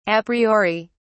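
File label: "Apriori", British English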